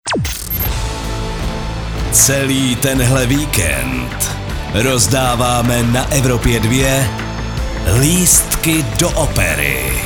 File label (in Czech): promo vikend; evropa2